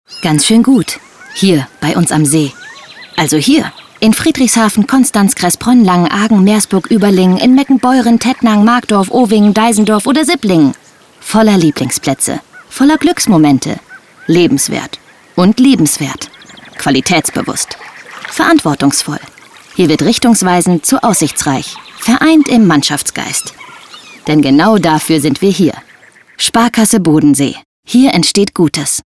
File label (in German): Funkspot; SK Bodensee